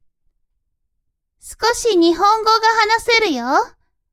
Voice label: woman